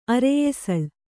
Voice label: "ಅರೆಯೆಸಳ್", Kannada; areyesaḷ; noun